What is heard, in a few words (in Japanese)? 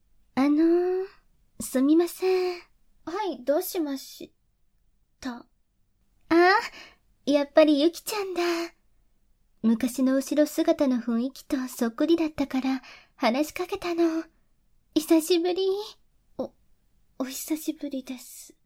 発情ボイス